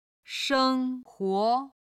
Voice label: "sheng1huo2", Chinese